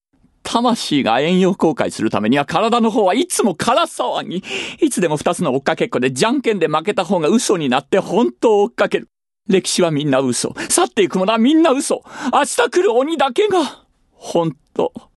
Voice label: male voice

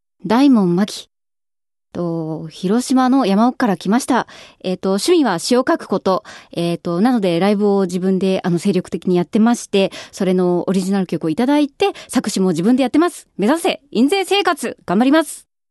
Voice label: freetalk, female voice

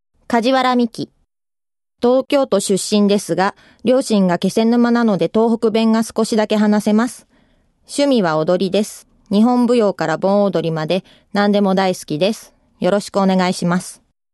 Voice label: female voice freetalk

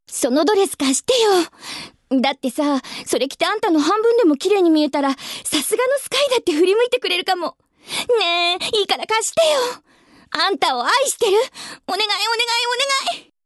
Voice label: girl, female voice

